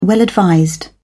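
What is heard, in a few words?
"well-advised", British English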